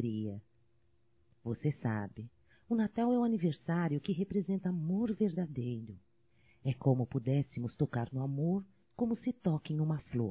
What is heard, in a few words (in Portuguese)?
Irmão Feminino; Natal e ano novo; Telemensagens 2017